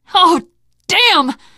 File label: reaction negative, teen girl; source engine; left 4 dead 2; survivor